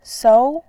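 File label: "sou", Mandarin Chinese, second tone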